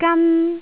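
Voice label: "gan1", Cantonese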